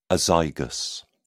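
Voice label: "azygous", British English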